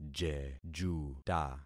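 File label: "jejuta", concatenated syllables; Affixing experiment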